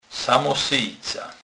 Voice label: "samosyjca", kurpie

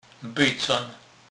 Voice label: "bycon", kurpie; slownik

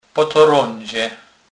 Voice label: "potoradze", kurpie

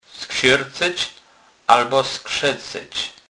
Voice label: "sksercec", kurpie

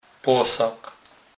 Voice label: "posag", kurpie

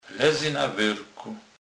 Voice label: "werko", kurpie

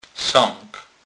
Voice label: "sek", kurpie

